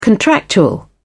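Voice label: "contractual", British English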